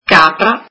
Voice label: italian goat

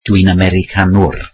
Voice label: welsh Im American

